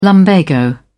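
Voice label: "lumbago", British English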